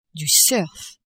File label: "surf", French